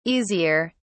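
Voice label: "easier", British English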